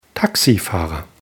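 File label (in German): "taxifahrer", German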